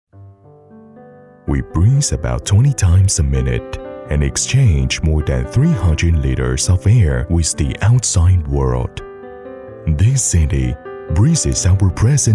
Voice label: 英文专题温情介绍

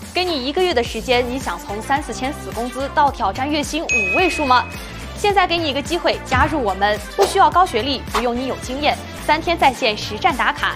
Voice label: 口播

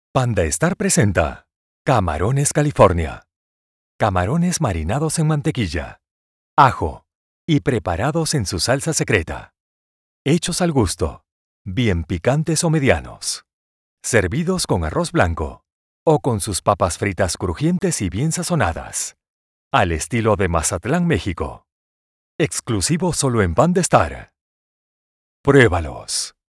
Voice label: 西班牙语

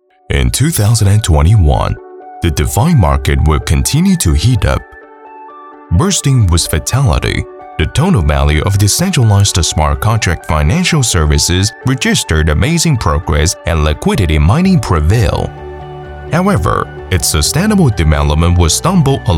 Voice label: 英文专题科技感

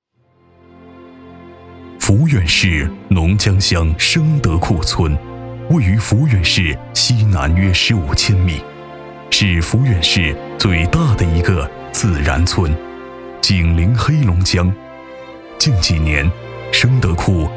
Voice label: 城市专题磁性低沉; 抚远市

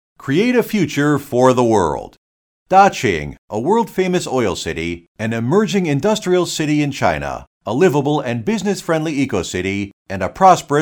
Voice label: 外籍 英语 美式 磁性 大气

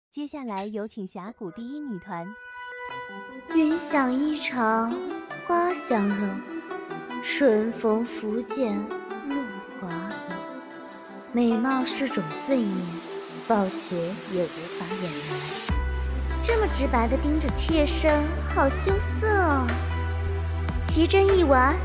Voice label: 一人多角; 一人多角杨玉环貂蝉

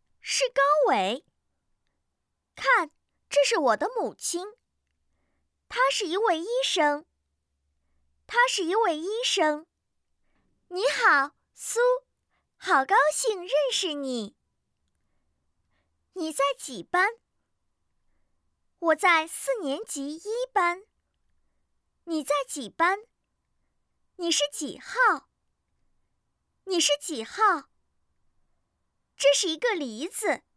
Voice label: 教材 词汇

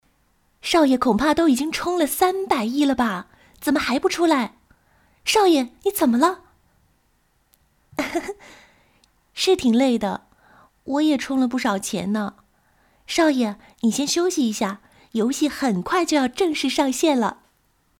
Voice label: 女仆女; 氪金玩家; 琥珀

